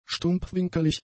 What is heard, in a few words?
"stumpfwinkelig", German; adjectives